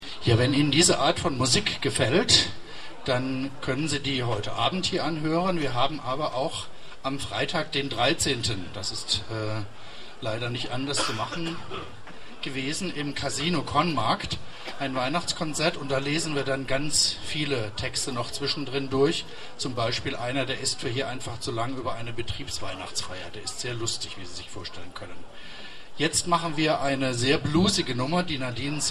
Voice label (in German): Ansage; Konzert X-Mas (Kathrainenmarkt, Kommlingen)